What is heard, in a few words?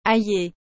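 "ailler", French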